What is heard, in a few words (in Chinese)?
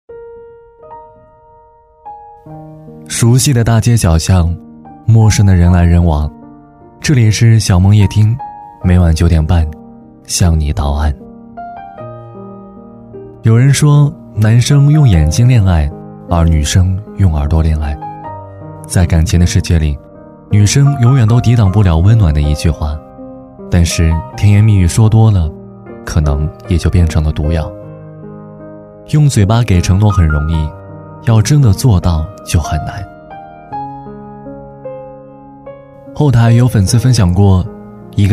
夜听情感